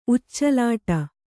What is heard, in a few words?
"ಉಚ್ಚಲಾಟ", Kannada